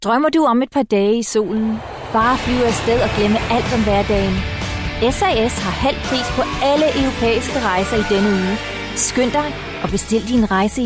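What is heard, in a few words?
Danish; Danish, Scandinavian, Male, Home Studio, 20s-30s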